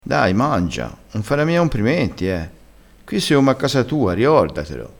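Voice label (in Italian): "comprimenti", dialettale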